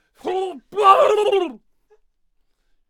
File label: Bone Mage Attack Vocals